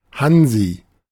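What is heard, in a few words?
"Hansi", German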